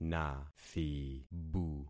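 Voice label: "nathibu", concatenated syllables; Affixing experiment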